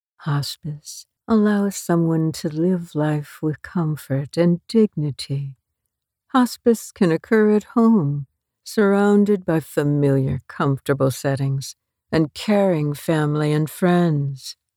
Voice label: Hospice ad